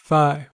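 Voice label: "phi", American English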